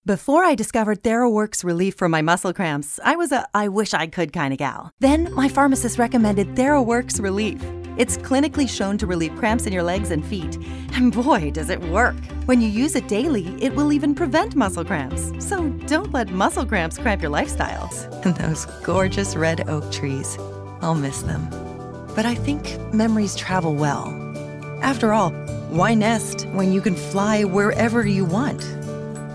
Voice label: Commercial

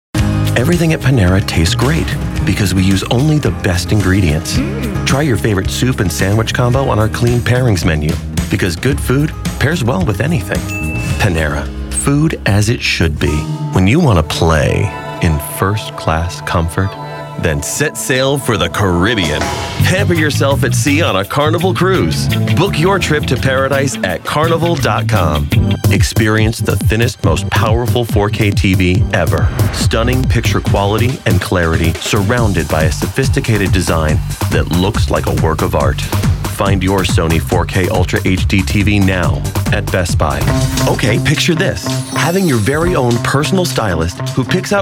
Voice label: Commercial

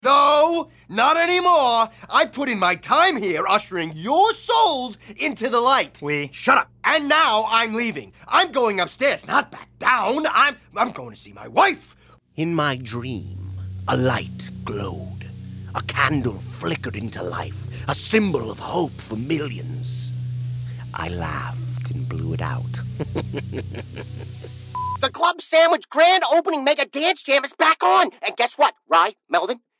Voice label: animation

